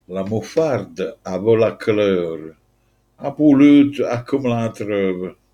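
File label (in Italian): "muffarda", Manfredonia dialect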